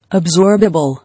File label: "Absorbable", English